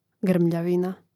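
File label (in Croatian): "grmljavina", hrvatski; mreznik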